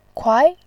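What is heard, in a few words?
"kuai2", Mandarin Chinese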